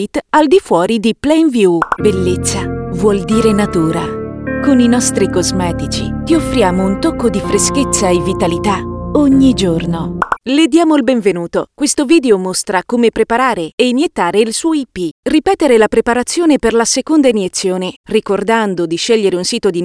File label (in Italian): italia, italiano